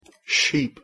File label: pronunciation en "sheep"